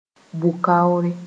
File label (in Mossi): "bʋ-kaore", moore; justice